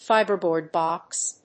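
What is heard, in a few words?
"fiberboard box", English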